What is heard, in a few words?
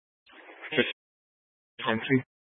Fire Dispatch, scanner